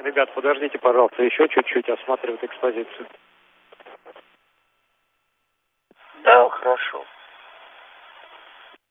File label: Space to Ground; Expedition 39